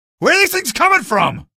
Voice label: alert antlions, male; video games; npc; source engine; episodic; episode 1

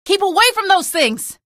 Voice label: alert rollers, female; video games; npc; source engine; episodic; episode 1

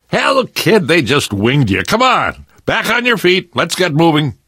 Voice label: revive friend, left4dead2 survivor voice namvet; source engine

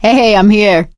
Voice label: scenario join, survivor voice

